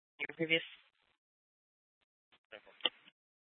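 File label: Police Department North Dispatch, scanner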